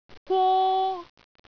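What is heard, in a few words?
"gwo1", Cantonese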